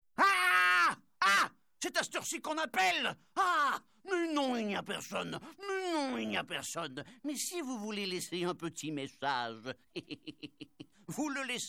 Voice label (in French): Message parodie